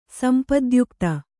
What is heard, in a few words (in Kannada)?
"ಸಂಪದ್ಯುಕ್ತೆ", Kannada